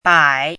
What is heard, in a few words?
"bai3", pinyin